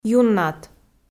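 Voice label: "юннат", Komi, female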